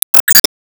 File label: Emo Greet